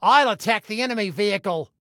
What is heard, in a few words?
Self Attack Vehicle, Male; Male 02